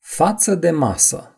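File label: "tablecloth", Romanian; gcompris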